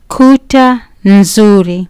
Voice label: "kuta nzuri", Kiswahili

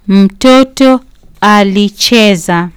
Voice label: "mtoto alicheza", Kiswahili

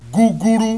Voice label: "guguru", Yoruba; CONSONANTS; guguru-gele-igo

